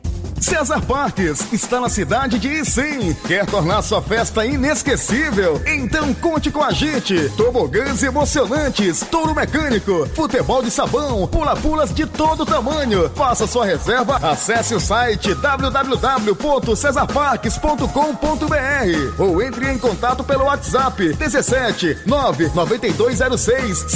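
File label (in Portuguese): anuncio radio; CLUBE FRONTEIRA 2024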